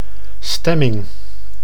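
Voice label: "stemming", Dutch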